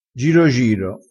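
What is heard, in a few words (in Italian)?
"giro giro", vocabolario dialettale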